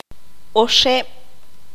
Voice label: tres, qanjobal

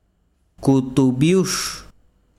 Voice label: "camino", besiro, chiquitano

